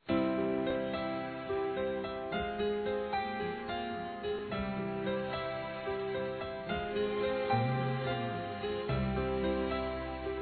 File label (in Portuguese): QUANDO PEQUENA F, Telemensagens, Dia das Mães; Telemensagens 2017